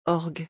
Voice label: "orgue", French; vocabulary; musical instruments

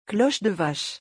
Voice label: "cloche de vache", French; vocabulary; musical instruments

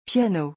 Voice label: "piano", French; vocabulary; musical instruments

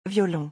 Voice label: "violon", French; vocabulary; musical instruments

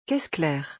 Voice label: "caisse claire", French; vocabulary; musical instruments

